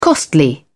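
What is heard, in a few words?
"costly", British English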